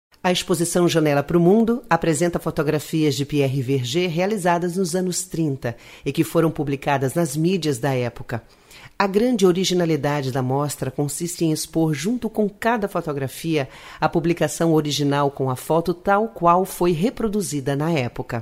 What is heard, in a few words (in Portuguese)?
locucao